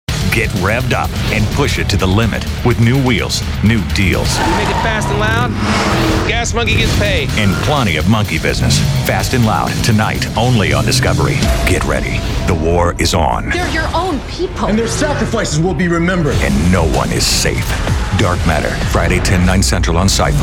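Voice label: Promos, voiceover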